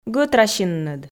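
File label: "гӧтрасинныд", Komi, female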